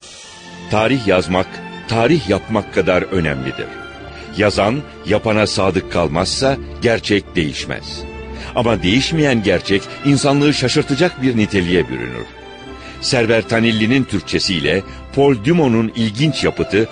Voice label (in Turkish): tanitim